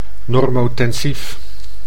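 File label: "normotensief", Dutch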